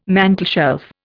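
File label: "mantelshelf", Indian English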